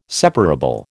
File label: "separable", American